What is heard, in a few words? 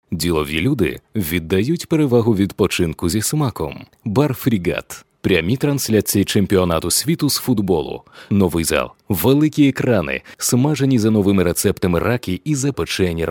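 Ukrainian commercial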